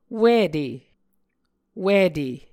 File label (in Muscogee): "wite", Muscogee